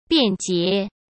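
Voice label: "便捷", Mandarin Chinese; chinese-flashcards; hsk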